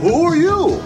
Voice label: "who are you" cartoon; questions